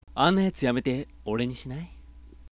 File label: sample sweet mid, voice